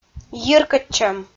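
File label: "йиркӧдчӧм", Komi, female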